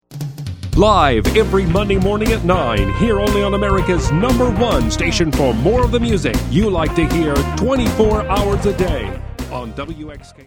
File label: radio station ID